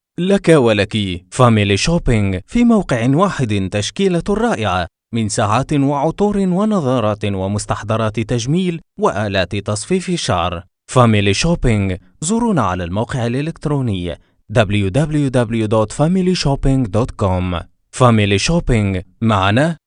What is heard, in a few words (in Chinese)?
阿拉伯语男 外语 小语种